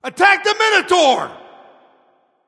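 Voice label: vox attack, male; smite; ullr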